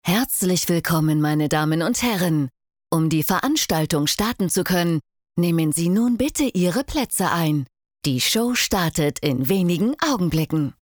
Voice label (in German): TONLABOR OFF ANSAGE